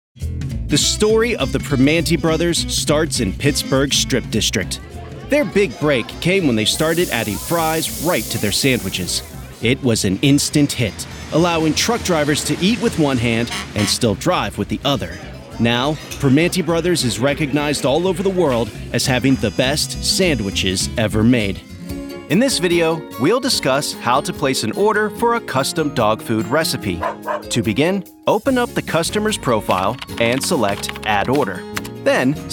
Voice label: Narration